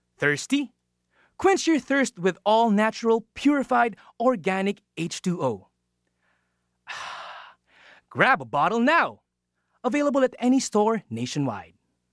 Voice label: English (Refreshing, Joyful)